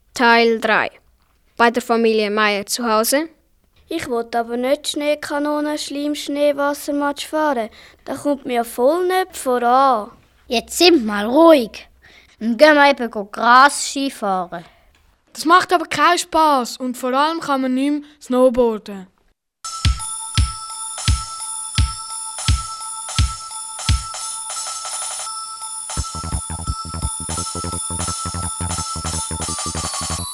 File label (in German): Hoerspiel; Minutengeschichten